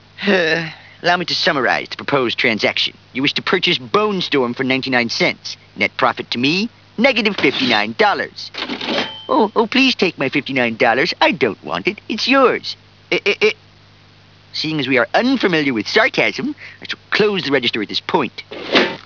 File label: sarcasm